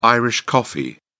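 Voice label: "irish coffee", British English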